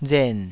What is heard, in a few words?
"ren3", Taiwanese